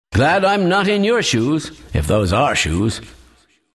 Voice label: announcer death; dlc kleiner